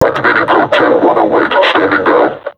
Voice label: taunt, vo combine suppressor; Half Life Alyx

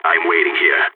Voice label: waiting here, balkan; vo

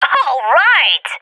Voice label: cheer; Counter Strike Model Pack; CS:GO

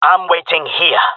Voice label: waiting here, jungle male; player vo; Counter Strike Model Pack; CS:GO

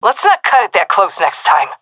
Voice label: close call, seal fem; vo; Counter Strike Model Pack; CS:GO

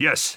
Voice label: affirmative, heavy; vo; Counter Strike Model Pack; CS:GO; phoenix coop

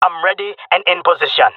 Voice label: in position, jungle male; player vo; Counter Strike Model Pack; CS:GO

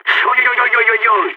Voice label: scared emote, balkan; vo

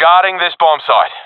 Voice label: guarding bombsite, professional epic; vo; Counter Strike Model Pack; CS:GO

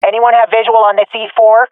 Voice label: query bomb, swat fem; vo; agents; Source Model Packs; Counter Strike Model Pack; CS2

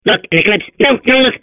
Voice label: babble; Portal 2

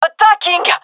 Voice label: attacking, gendermerie fem; vo

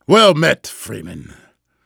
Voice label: well met, vortigaunt; Half Life 2; Episode 2

